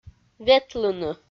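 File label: "ветлыны", Komi, female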